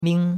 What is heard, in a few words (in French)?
"ming1", chinois; Cours-de-Chinois